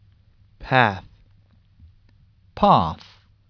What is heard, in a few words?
"PATH", USUK Pronunciation